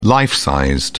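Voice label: "life size", British English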